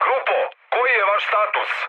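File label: Serbian chat; speech; War Thunder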